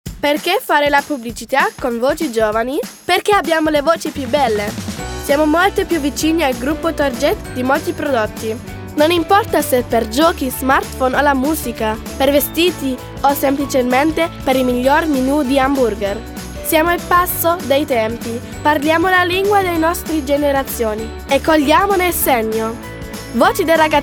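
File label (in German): Werbung, Italian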